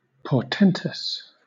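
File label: "portentous", British English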